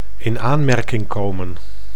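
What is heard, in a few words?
"in aanmerking komen", Dutch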